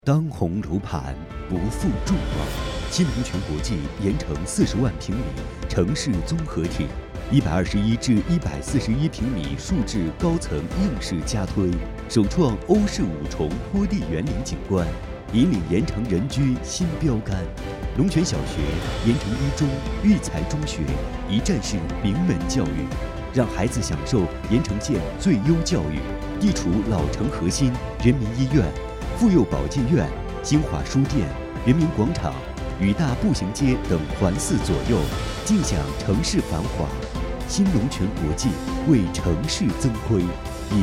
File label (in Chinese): 大气广告; 新龙泉国际